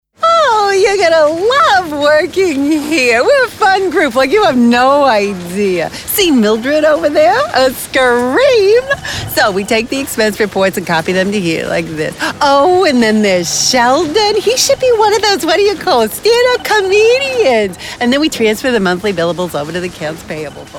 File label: character